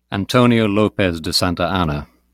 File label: pronunciation en antonio lópez de santa anna